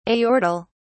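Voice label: "aortal", British English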